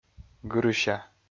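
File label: "гырыся", Komi, male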